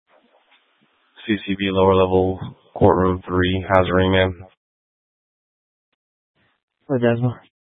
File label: Sheriffs Office Central Court Security, scanner; Maricopa County; Chandler-Mesa Simulcast